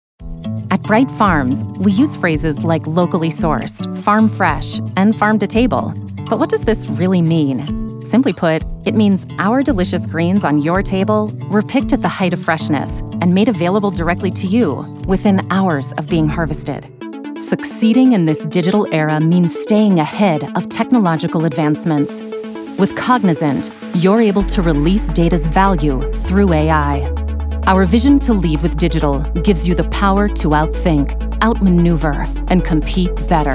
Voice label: Corporate

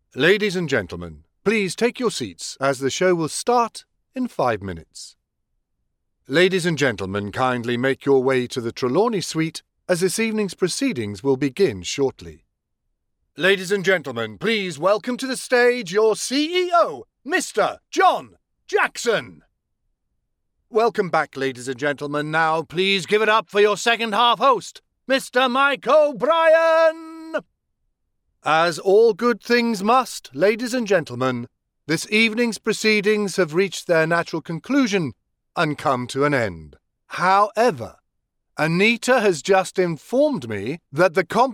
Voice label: Voice of God

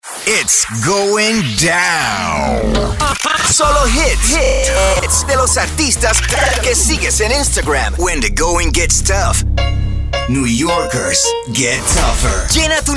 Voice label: Imaging Spanish

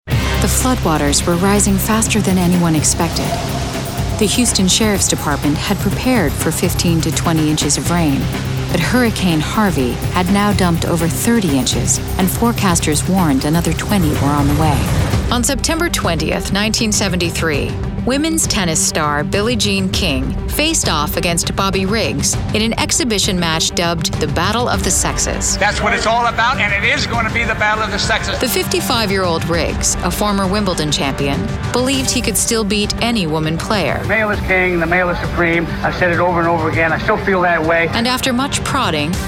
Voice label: Narration

